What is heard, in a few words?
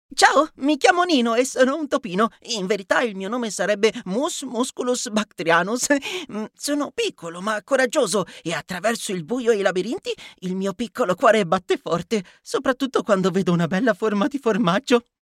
male italian cartoon; voices